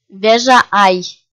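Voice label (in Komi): "вежа ай", Komi, female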